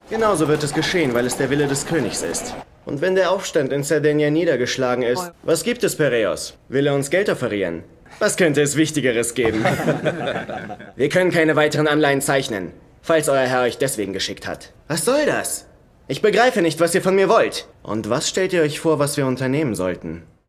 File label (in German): Synchron Historisch